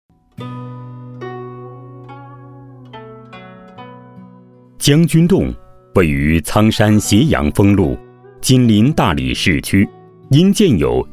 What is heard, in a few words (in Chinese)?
男 景区类解说; 将军洞景区